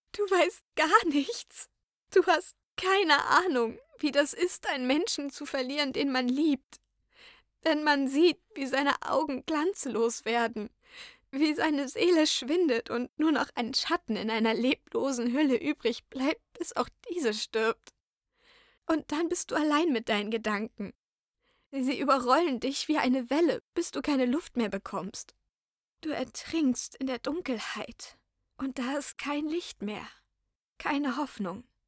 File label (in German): Ernster Monolog